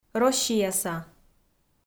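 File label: "россияса", Komi, female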